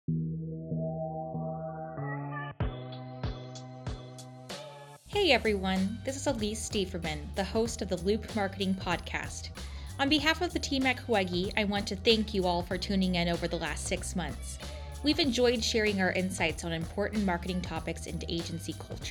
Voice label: Winter Break Announcement